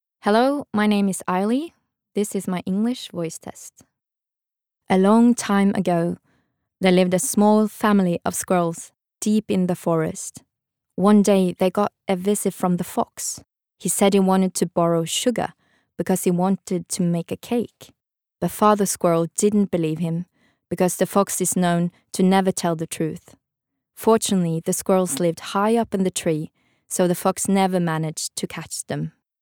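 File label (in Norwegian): Norwegian engelsk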